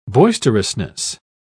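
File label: "boisterousness", British English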